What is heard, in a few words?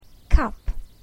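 "cup", English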